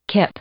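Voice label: "kip", English, female; noun; i-ɪ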